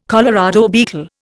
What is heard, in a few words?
"Colorado beetle", Indian